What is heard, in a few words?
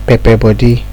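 "pepper body", Nigerian Pidgin, male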